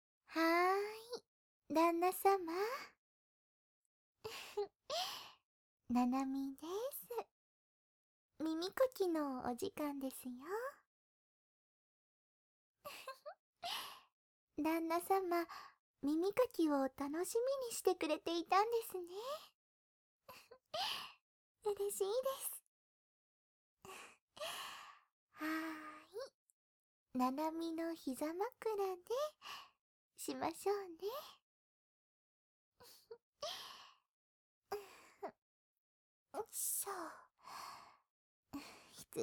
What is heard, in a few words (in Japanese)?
耳かき（右耳に反転）; 七海さん